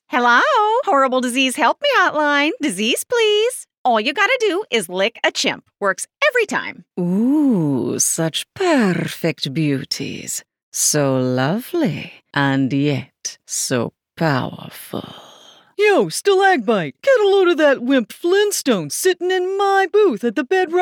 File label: Animation Character VO